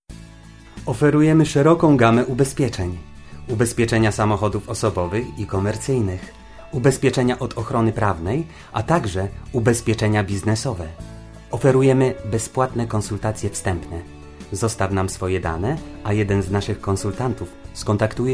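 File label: Polish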